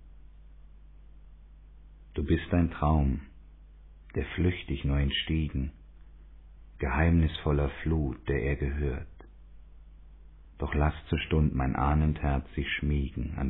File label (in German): mann